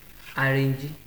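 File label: "arrangee", Nigerian Pidgin, male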